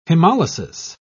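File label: "hemolysis", British English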